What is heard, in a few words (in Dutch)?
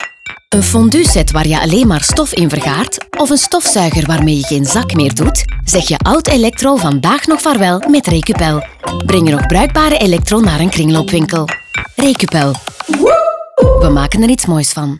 kringloopwinkel radio; recupel